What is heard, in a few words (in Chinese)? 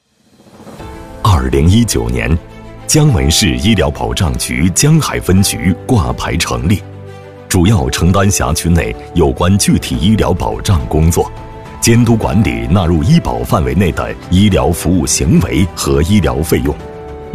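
男【宣传片】; 医保局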